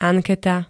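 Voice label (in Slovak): "anketa", Slovak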